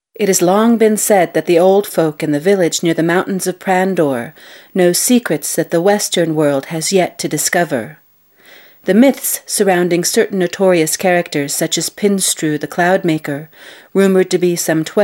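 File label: F EN documentary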